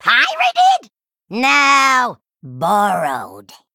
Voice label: Female Goblin